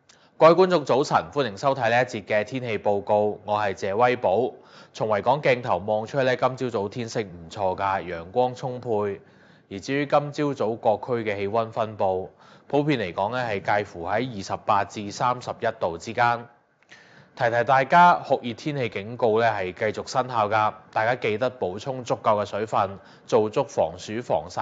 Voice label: audio sample cantonese